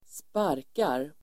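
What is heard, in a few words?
"sparkar", Swedish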